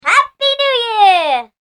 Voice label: "Happy New Year", spoken; human voice sound effect